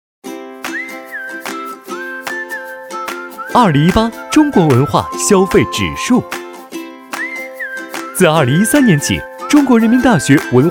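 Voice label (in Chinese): 男（轻松）; 飞碟说-2018中国文化消费指数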